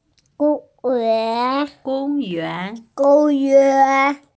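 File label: "park", hearing impaired; gong1yuan2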